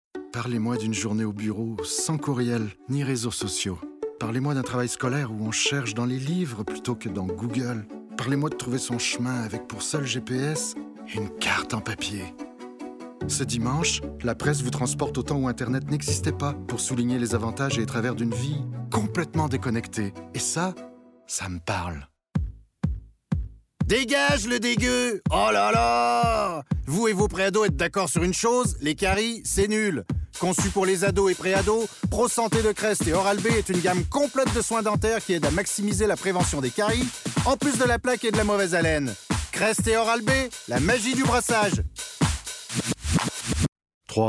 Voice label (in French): voix publicitaire; Démo voix publicitaires (1 min 30)